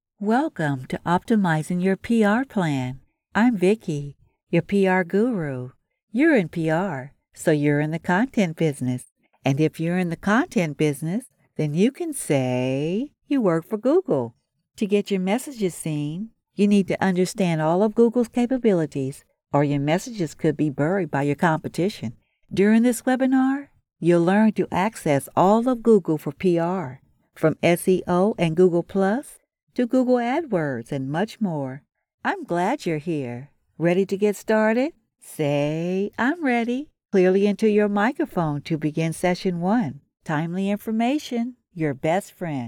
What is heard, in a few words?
Public Relations E-Learning